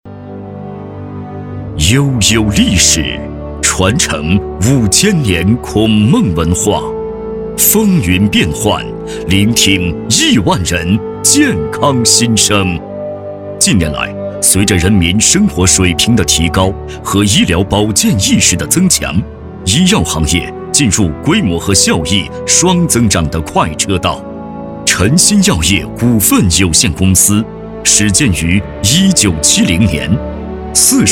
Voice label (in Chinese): 宣传片 企业 成熟, 男国; 辰欣企业